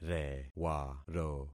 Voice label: "rewaro", concat syllables; Affixing experiment